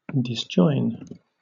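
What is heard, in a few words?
"disjoin", British English; pronunciations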